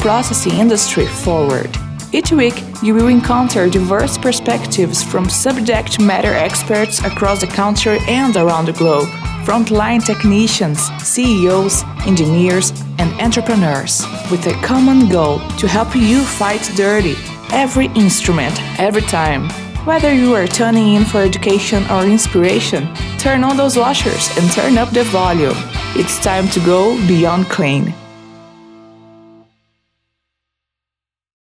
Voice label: english podcast rocky confident native American English female Brazilian